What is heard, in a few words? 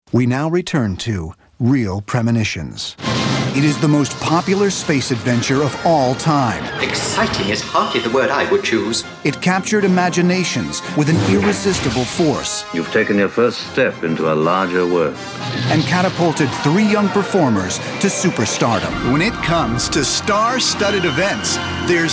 promo